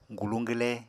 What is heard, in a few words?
"guluñ-gwle", Apatani